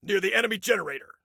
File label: Warn Enemy Near Enemy Generator, Soldier Male; Soldier Male 02